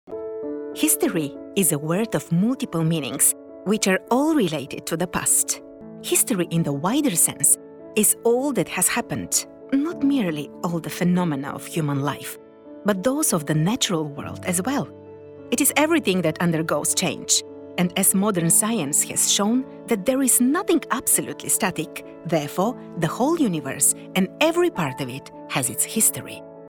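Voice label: Bulgarian, female, English narration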